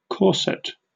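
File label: "corset", British English; pronunciations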